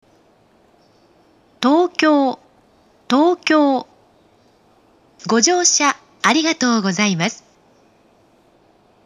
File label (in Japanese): 到着放送, 発車メロディー; ６番線到着放送; 東京; 管理名称は「ＪＲＥ‐ＩＫＳＴ‐００７-０２」です。「京浜東北線南行新汎用曲」です。